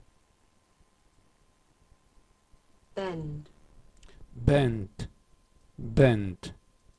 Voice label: "bend", irregular verbs